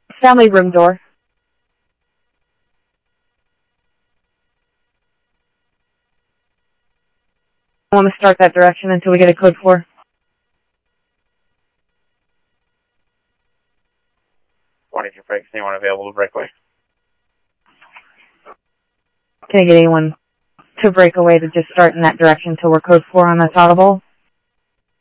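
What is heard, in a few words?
police department, scanner audio; ThompsonPeakHigh; PHOENIX PD-200 A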